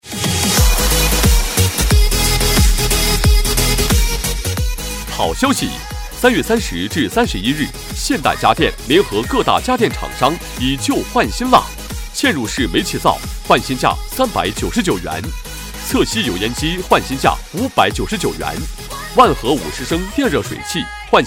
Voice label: 男 国语 磁性 激情, 广告; 现代家电

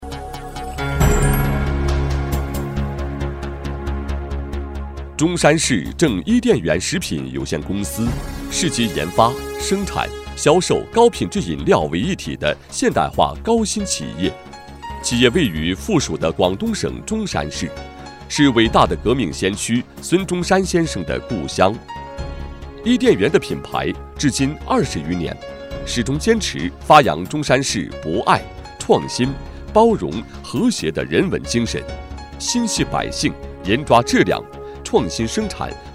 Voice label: 男 国语 磁性 激情, 专题; 公司简介

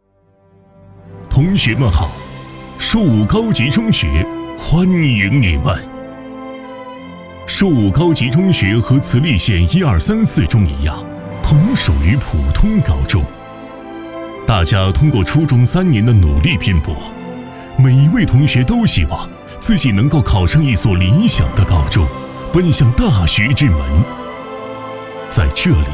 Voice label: 男国语, 专题; 学校宣传

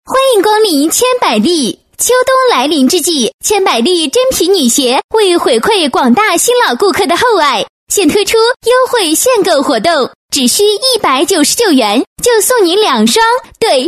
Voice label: 女国语, 广告; 千百莉女鞋

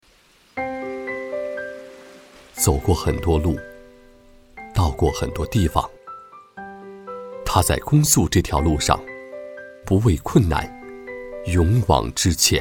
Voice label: 男 国语 磁性 激情, 旁白; 不畏困难

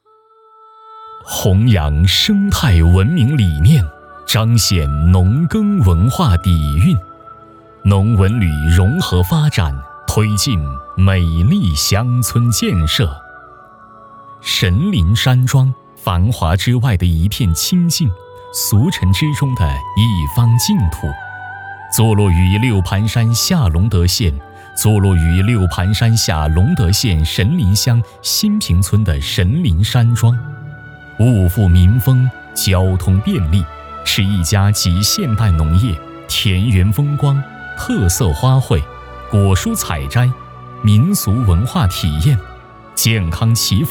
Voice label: 男国语; 【专题】-乡村振兴

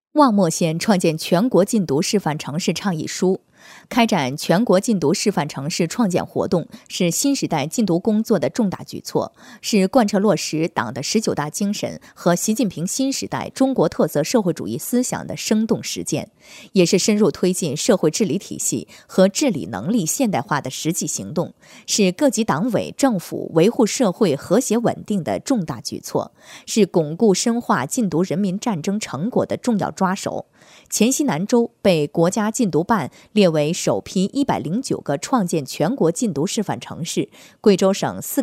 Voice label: 国语 温柔 亲切, 专题; 示范城市创意书

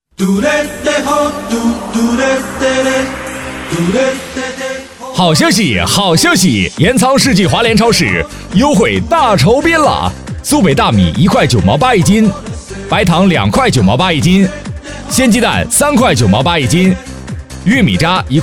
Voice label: 配音师男国语, 庄重大气 激情活力, 广告; 世纪华联

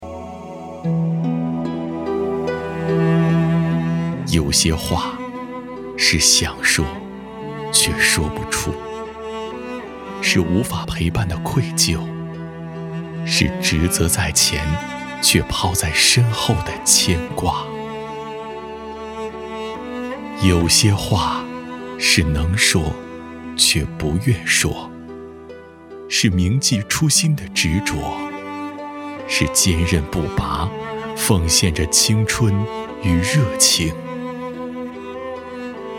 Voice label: 男 国语 配音, 浑厚 大气 时尚, 旁白; 歌颂护士节

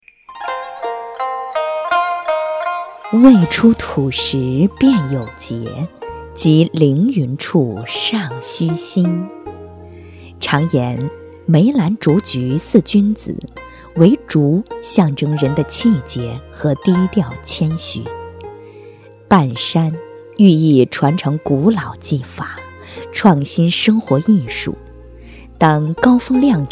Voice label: 国语 温柔 亲切, 专题; 未出土时便有

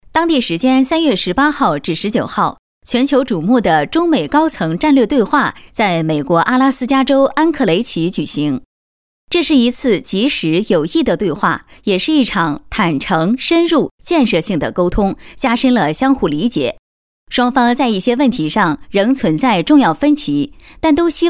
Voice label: 女国语, 新闻; 当地时间3月18日

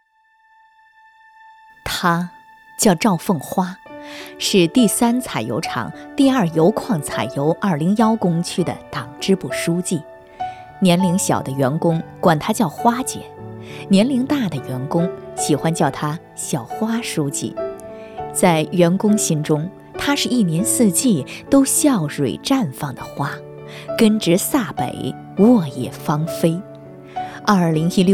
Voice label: 国语 温柔 亲切, 专题

